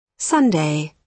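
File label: "sundae", British English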